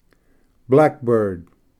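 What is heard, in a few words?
"blackbird", English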